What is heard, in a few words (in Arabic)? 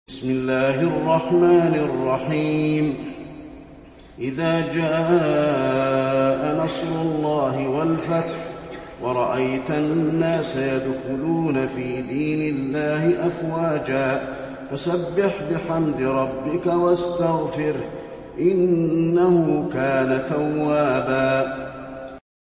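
النصر, تلاوة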